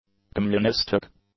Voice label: "communistic", English; adjectives

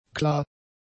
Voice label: "klar", German; adjectives

